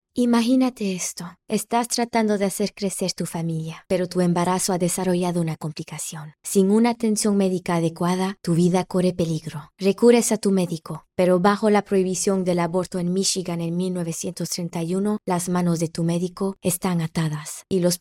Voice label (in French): Espagnol